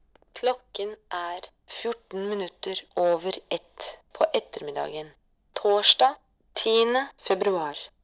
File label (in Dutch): vrouw